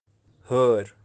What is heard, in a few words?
"haer", myna, Kashmiri; Birds of kashmir; kashmiri dictionary